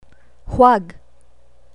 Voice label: "huwag", Tagalog; survival travel words

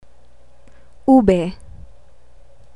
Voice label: "ube", Tagalog

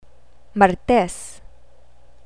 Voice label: "martes", Tagalog

